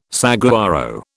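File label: "saguaro", British English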